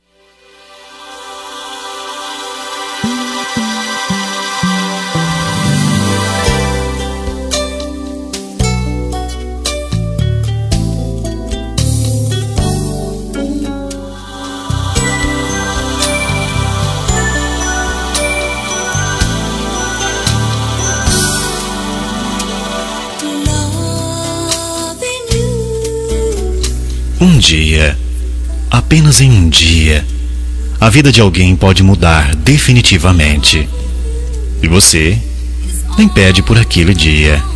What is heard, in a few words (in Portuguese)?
ROM UM DIA MASC